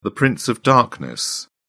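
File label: "prince of darkness", British English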